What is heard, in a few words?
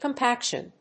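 "compaction", English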